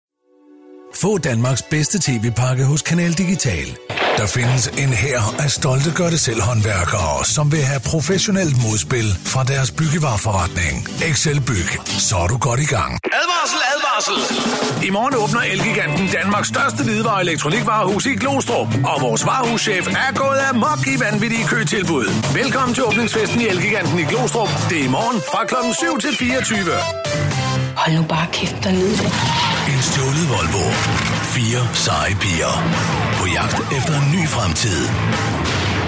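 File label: Danish male, commercial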